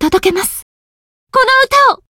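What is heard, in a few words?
Voice encount Japanese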